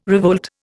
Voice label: "revolt", Indian